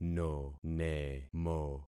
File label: "nonemo", concat syllables; Affixing experiment